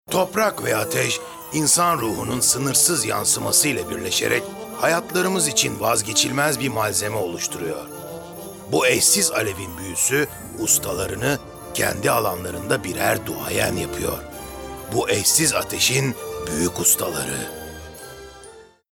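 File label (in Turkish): Sıcak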